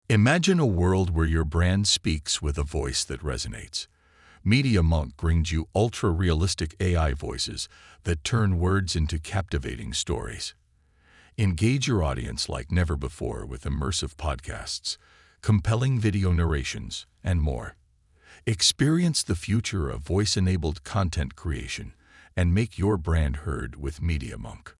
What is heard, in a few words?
narration